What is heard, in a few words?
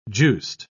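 "juiced", British English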